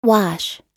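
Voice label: "wash", US English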